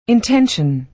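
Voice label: "intention", American English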